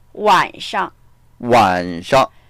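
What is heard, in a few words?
"wan3shang", Mandarin Chinese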